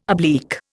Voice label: "oblique", Indian